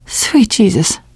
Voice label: safe spot ahead reaction, teengirl, voice; player; survivor